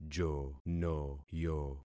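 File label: "jonoyo", concatenated syllables; Affixing experiment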